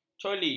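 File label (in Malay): "coli", Malay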